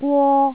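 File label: "gwo1", Cantonese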